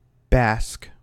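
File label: "bask", US English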